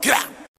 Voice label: "gah", voice; ooh ahh

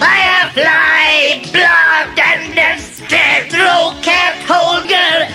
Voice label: gibbrish; Voice

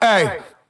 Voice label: "ay", voice

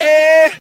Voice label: "ay", voice

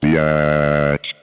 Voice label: bitch robot beotch; Voice

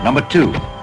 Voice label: number two male; Voice; numbers and letters

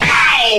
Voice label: ow male

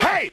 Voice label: "hey", male

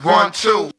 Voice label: 1 2 group of men; numbers and letters

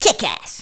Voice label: "kick ass", expletives; Voice; ass hole

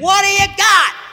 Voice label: "what have you got", cartoon

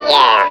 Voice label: "yeah" cartoon, voice

